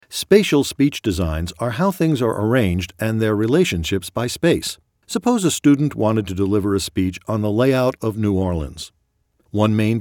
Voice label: Informative Speech